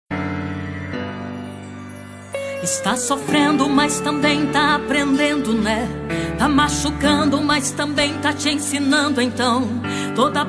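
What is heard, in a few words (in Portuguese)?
birthday EVANGELICO male, telemensagem evangelica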